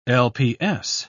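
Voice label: "lps", British English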